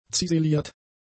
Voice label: "ziseliert", German; adjectives

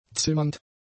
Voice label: "zimmernd", German; adjectives

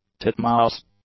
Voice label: "titmouse", English; nouns

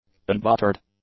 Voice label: "unbuttered", English; adjectives

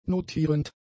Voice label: "notierend", German; adjectives

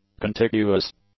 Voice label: "contiguous", English; adjectives

